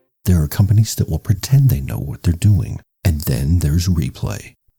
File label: Serious and Somber